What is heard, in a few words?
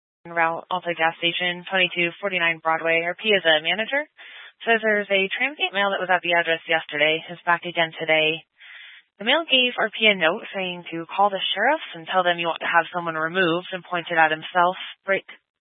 Police Department Dispatch, scanner